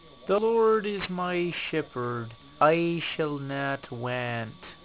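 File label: "psalm", Elizabethan English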